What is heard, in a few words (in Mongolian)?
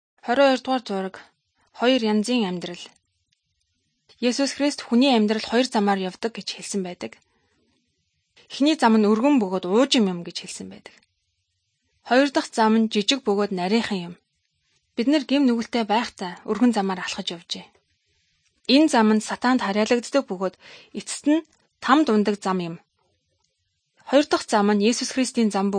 good news mongolian